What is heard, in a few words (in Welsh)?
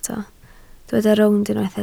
"rownd", Welsh